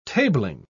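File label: "tabling", British English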